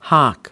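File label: "hock", American English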